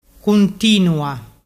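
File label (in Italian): "cuntinua", Vernazzese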